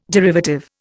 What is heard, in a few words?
"derivative", Indian